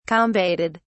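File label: "combated", British English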